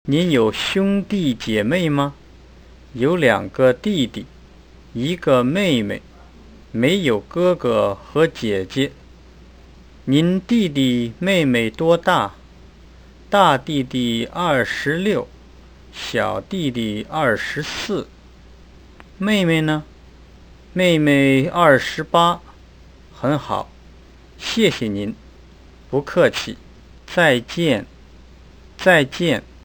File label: dialog, Chinese